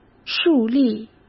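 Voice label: "树立", Mandarin Chinese; chinese flashcards; hsk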